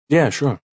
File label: Yeah Sure Male, Exclamation